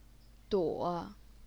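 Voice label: "duo", third tone, Chinese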